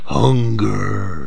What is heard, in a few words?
hungers, zombie speech